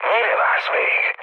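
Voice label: attack position, mood low, Hungarian; War Thunder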